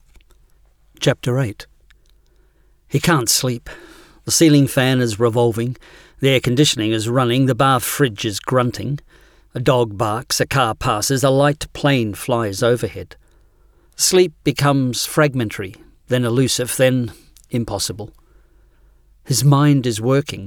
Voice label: Australian novel